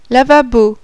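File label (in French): "LAVABO", French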